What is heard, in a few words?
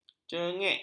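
"cenggek", Malay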